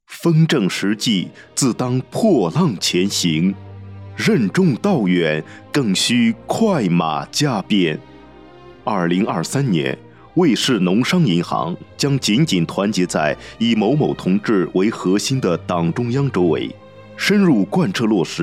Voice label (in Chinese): 宣传片